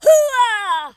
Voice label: fire, Drill Sergeant speech